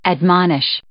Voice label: "admonish", English